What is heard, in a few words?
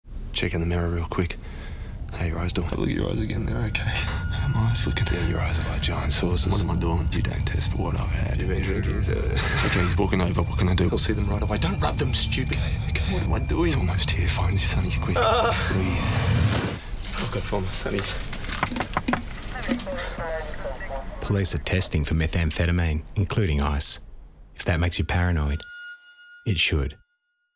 radio ad; TAC; Paranoid; Drugs